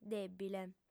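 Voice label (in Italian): "dèbile", lingua sarda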